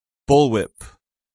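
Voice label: "bullwhip", English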